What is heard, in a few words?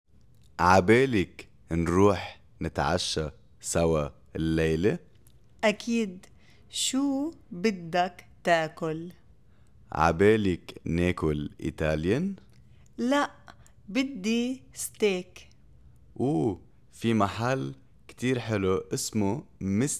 Dialogue three spoken Arabic